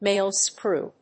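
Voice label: "male screw", English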